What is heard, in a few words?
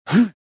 pushed, rebel